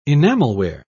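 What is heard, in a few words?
"enamelware", British English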